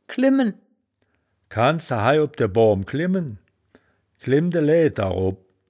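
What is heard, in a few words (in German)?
"klimmen", wittlicher woerterbuch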